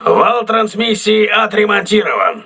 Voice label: voice message transmission repaired, crew ships russian